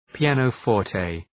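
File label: "pianoforte", English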